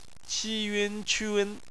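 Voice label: "qun", Mandarin Chinese; phonetics; bpmf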